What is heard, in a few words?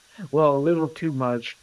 Voice Acting, es, M